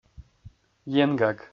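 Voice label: "енгаг", Komi-Zyrian, male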